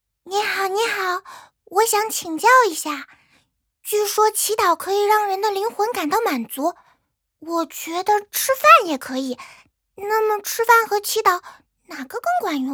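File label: voice Chinese; TruffleAndFilbert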